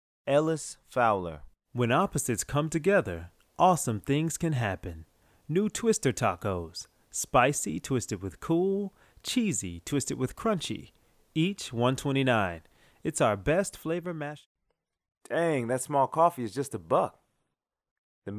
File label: VO commercial